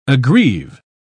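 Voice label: "aggrieve", British English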